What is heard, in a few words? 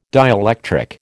"dielectric", American